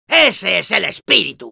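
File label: positive vocalization, Spanish; "¡Ese es el espíritu!"; Demoman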